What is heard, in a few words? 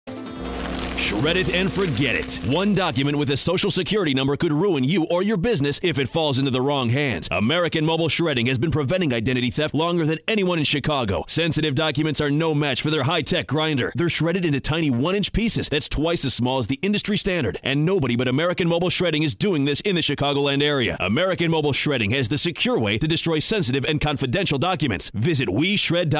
radio spot; american mobile shredding